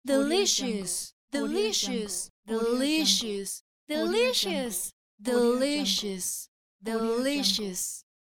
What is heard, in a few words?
female delicious